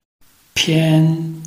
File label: "pian1", Chinese